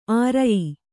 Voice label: "ಆರಯ್ಯು", Kannada

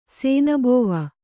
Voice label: "ಶಾನಬಾವ", Kannada

